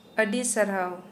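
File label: Santali female thank you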